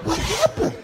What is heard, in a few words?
what happened WHISPER; Voice; questions